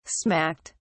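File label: "smacked", English, British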